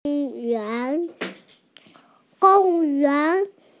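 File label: "park", Mandarin Chinese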